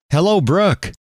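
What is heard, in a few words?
hello, male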